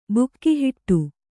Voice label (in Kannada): "ಬಿಗಿಹಿಡಿ", Kannada